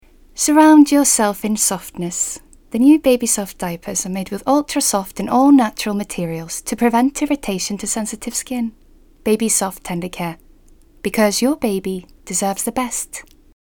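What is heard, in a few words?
British Commercial, Clear, Friendly Female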